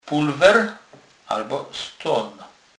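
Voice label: "pulwer", Polish, Kurpie dialect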